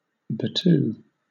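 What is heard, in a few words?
"battue", British English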